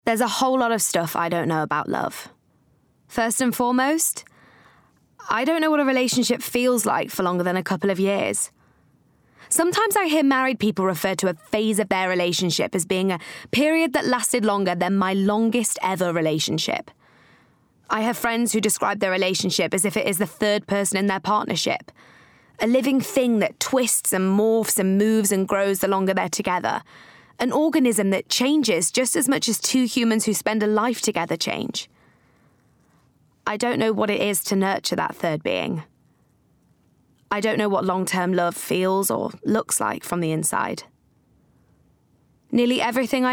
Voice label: NARRATIVE Audiobook